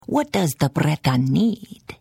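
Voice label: Hello, Khajiit female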